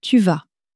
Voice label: "tu vas", French

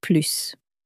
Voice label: "plus", French

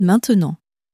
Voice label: "maintenant", French

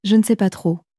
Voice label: je ne sais pas trop, common french words

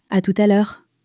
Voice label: "à tout à l'heure", French; flashcards

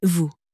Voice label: "vous", French

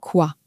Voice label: "quoi", French; question words pronouns

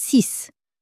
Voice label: "six", French

